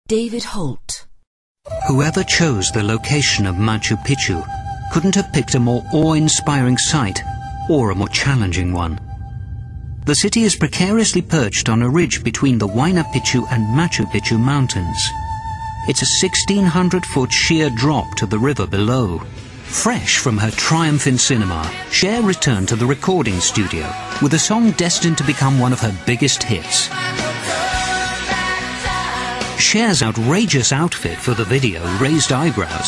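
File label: narration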